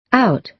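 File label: "out", American English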